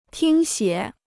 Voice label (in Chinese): "听写", Chinese